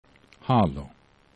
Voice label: "halo", esperanto

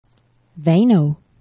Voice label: "vejno", Esperanto; geneva

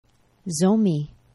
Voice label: "zomi", Esperanto; geneva

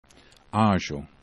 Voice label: "ajxo", esperanto

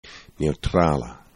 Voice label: "neutrala", esperanto

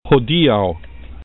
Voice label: "hodiaux", esperanto

